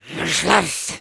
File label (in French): Medic jeers; Robot Medic